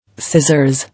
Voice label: "Scissors", English